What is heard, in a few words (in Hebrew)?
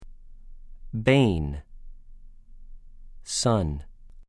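"בֵּן", Hebrew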